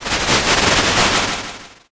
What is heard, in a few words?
whisper